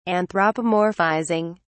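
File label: "anthropomorphizing", English, British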